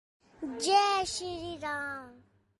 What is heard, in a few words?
Jai Sree Ram Sms Message Tone Notification; ringtone